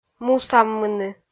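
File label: "мусаммыны", Komi, female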